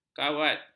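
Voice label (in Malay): "kawad", Malay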